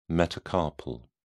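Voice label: "metacarpal", English, British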